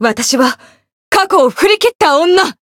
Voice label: chant jp